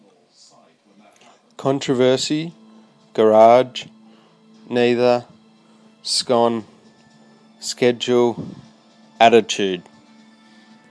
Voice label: Melbourne male